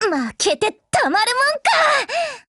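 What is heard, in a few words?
Japanese, Voice; BREAK; GF Alarm; MicroUZIMod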